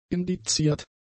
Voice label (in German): "indiziert", German; adjectives